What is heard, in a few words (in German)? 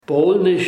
polnisch